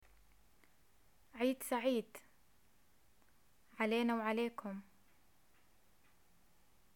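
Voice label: greet eid, Jordanian arabic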